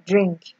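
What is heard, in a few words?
"drink", Nigerian Pidgin, female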